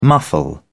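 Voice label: "muffle", British English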